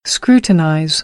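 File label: "scrutinize", American English; AmericanBritish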